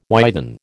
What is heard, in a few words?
"widen", American